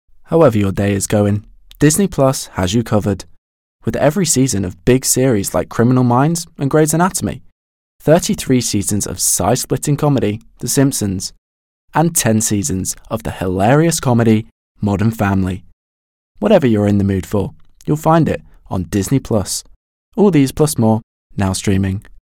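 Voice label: British English Commercial; GoLocalise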